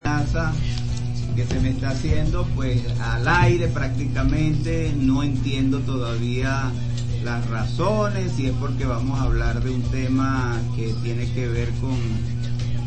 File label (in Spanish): locutor